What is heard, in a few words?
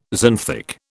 "xanthic", British